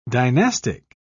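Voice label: "dynastic", British English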